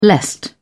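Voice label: "lest", British English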